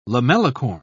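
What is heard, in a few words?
"lamellicorn", British English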